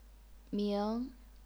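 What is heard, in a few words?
"ming", second tone, chinese pinyin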